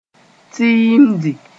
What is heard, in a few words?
"tiimdi", Moore